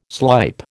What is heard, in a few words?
"slype", American